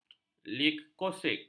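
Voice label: "leukosit", Malay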